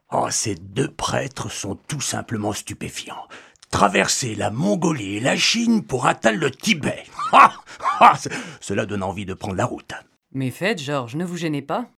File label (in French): FR H AUDIO-GUIDE; Français